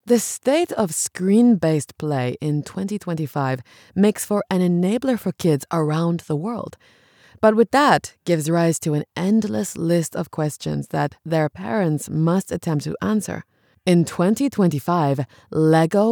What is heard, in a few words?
english international podcasts